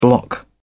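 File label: "bloc", British English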